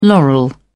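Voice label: "laurel", British English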